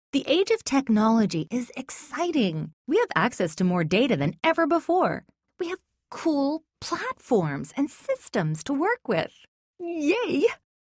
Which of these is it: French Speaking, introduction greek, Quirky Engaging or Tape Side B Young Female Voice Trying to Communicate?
Quirky Engaging